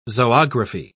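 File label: "zoography", British English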